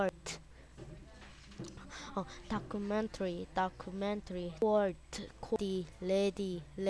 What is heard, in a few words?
documentary